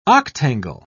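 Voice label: "octangle", English, British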